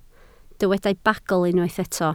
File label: "bagl", Welsh